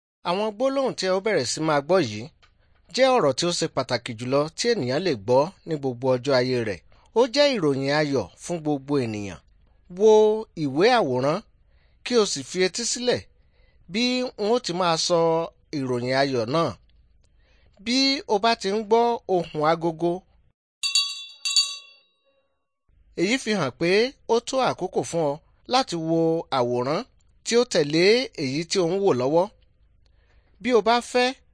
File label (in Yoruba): good news yoruba; bible